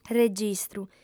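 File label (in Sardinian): "registru", female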